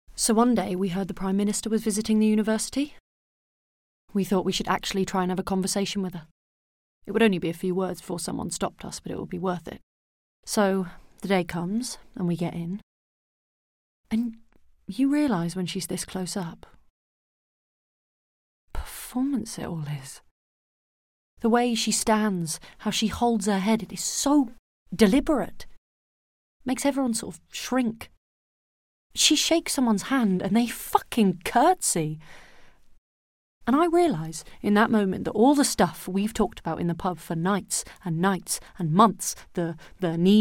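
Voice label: British English, Narration; GoLocalise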